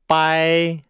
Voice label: "bai", Nihao